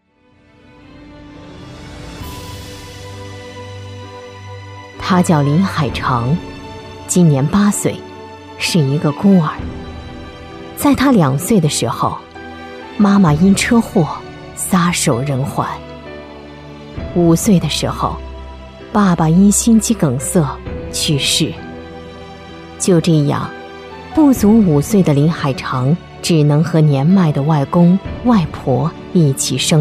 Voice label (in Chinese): 人物介绍 感人